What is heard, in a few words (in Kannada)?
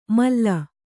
"ಮಾಲು", Kannada